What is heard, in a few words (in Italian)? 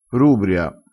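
"rubria", vocabolario dialettale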